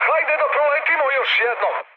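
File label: Serbian bomb failure mood high, speech; War Thunder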